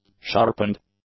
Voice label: "sharpened", English; adjectives